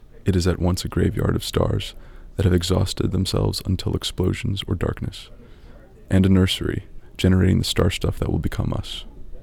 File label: narration